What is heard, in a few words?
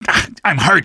im hurt, male; Half-Life 2